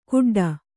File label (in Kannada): "ಗುಡ್ಡ", Kannada